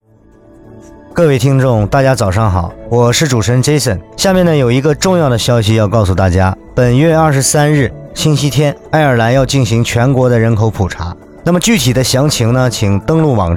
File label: Chinese; census